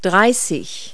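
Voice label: "thirty", English word; Multi-Lingual Talking Picture Dictionary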